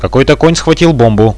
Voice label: pick bomb, radio bot; Reallite